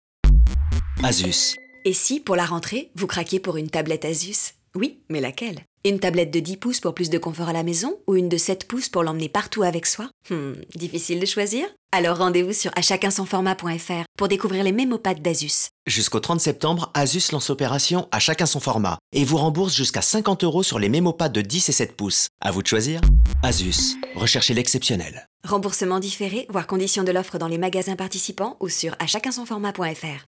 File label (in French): jingle; Asus